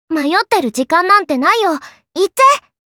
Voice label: "Mayotte", Kawaii Voice, Commands

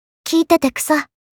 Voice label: Kawaii Voice, Memes; Kiitete

